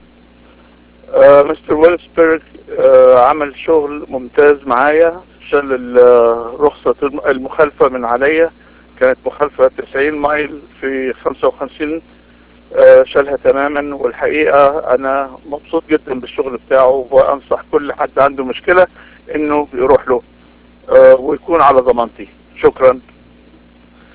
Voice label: arabic